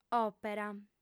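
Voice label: "òpera", Sardinian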